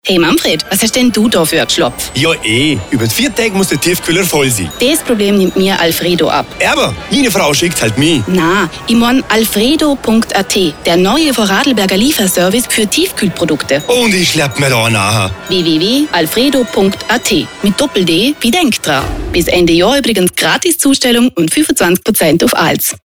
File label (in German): Werbespot; Alfreddo